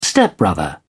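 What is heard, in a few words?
"stepbrother", British English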